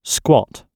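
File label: "squatt", British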